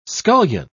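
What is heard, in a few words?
"scullion", English, British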